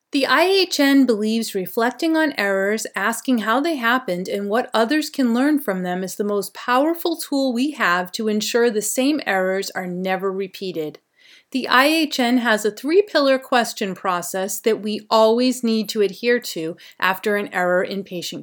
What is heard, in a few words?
Training Video; Voiceover Training Medical Healthcare Hospital Group; Professional voice acting